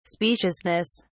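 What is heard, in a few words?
"speciousness", British English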